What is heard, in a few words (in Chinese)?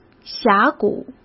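"峡谷", Mandarin Chinese; chinese flashcards; hsk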